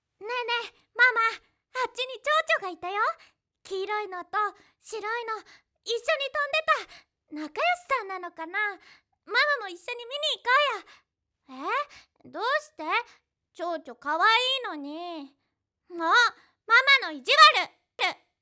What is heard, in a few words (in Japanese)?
ボイスサンプル 幼女